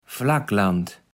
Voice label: "Flackland", platt

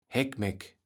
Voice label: "Heckmeck", Platt

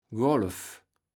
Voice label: "Golf", Platt

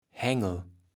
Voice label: "Hängel", Platt

